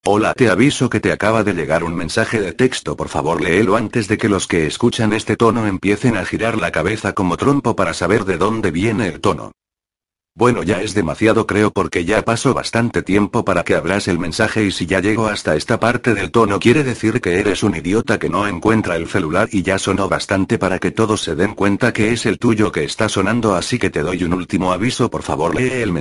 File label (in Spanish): Mensaje Loquendo